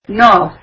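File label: "no", Kurdish, female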